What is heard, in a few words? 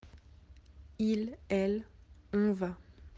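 "aller", French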